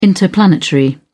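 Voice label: "interplanetary", British English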